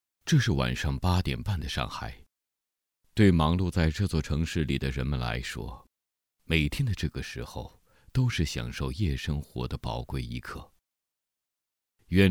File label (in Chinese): 人物宣传, 叙述